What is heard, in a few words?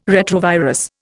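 "retrovirus", Indian English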